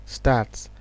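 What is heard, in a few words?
"start", Nigerian Pidgin, male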